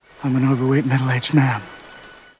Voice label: Middle Aged Man